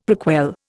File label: "prequel", Indian; Dictionary